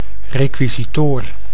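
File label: "requisitoir", Dutch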